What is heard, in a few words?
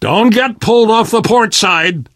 on big ship, voice; Left 4 Dead 2; Source Engine; video games; namvet